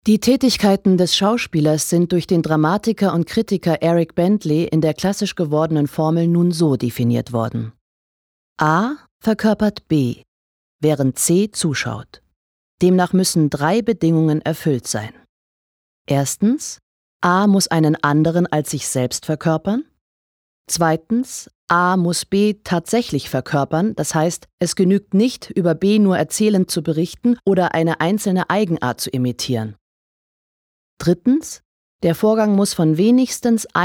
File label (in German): Neutral; Schauspieler